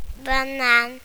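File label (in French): "banane" French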